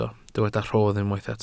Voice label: "rhodd", welsh

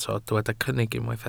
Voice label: "cynnig", welsh

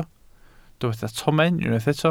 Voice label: "tomen", Welsh

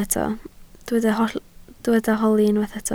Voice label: "holi", Welsh

